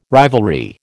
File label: "rivalry", American